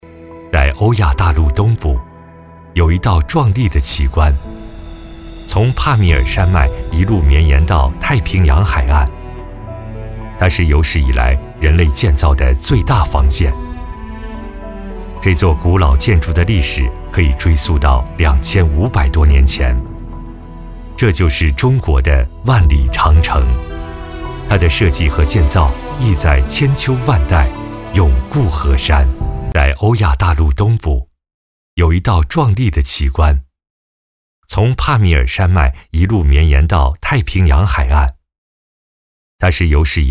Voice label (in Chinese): 男, 记录片, 长城